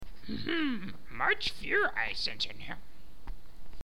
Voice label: yoda impersonation